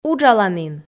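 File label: "уджаланін", Komi, female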